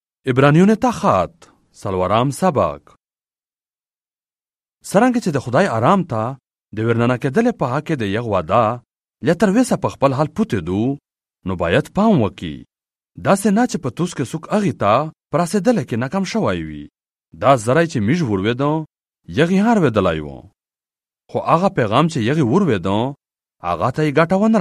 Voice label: Hebrews Chapter 4 in the Pashto language, Central